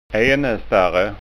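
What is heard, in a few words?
"enestarre", Scanian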